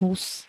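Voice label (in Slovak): "hus", slovenské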